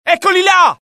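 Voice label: alert, Italian; mohaa; dialogue; sighted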